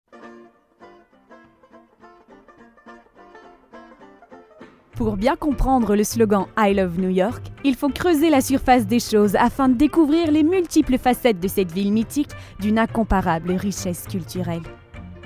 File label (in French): Narration